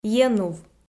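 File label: "енув", Komi, female; audio dict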